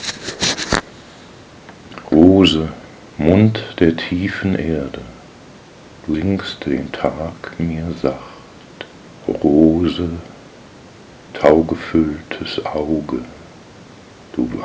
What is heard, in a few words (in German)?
Die weibliche Stimme